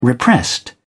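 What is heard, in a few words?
"repressed", British English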